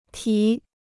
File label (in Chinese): "题", Chinese